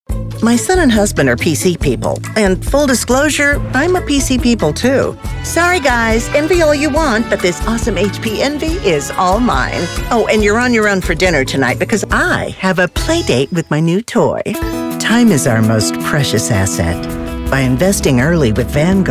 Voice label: women commercial

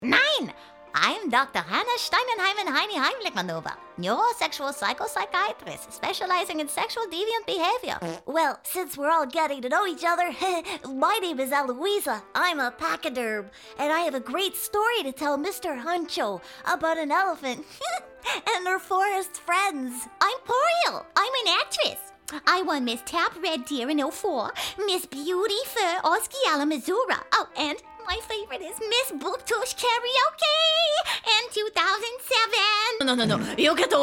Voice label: women animation

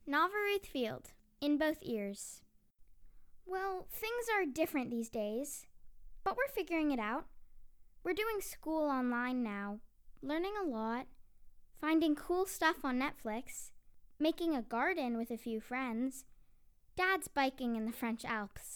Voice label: kids commercial

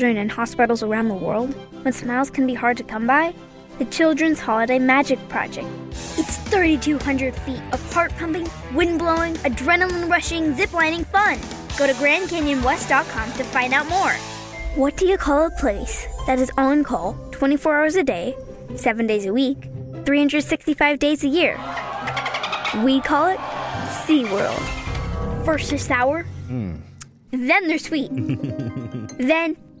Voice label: kids commercial

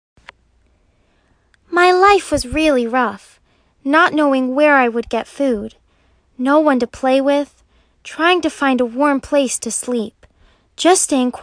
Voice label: kids commercial

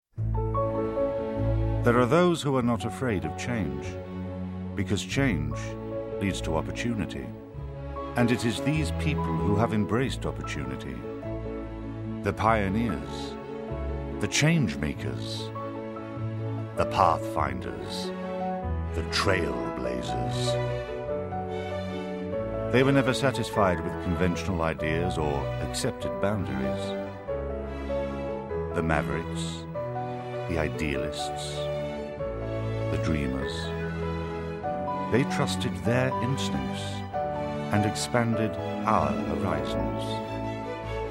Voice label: british narration

